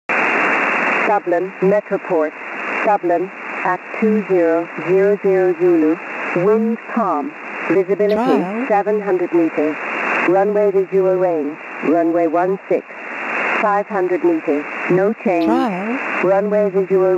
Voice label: utility voice 3413 kHz; shannon